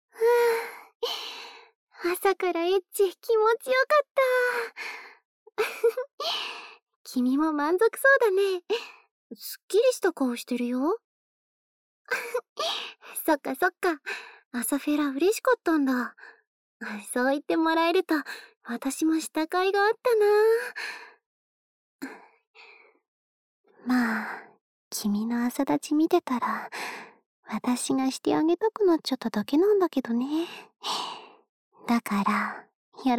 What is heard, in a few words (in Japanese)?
チェックアウトまでまったりピロートーク（効果音なし）, ASMR